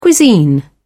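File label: "cuisine", British English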